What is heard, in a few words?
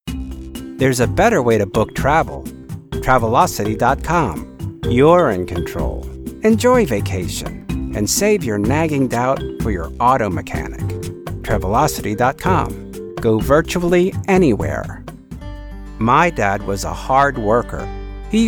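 Commercial Voice Reel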